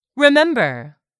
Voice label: "remember", english; 3000 words